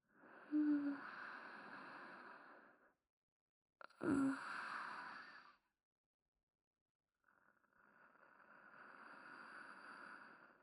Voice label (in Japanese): 添い寝でだらだら耳舐め乳首責め太ももコキ, ASMR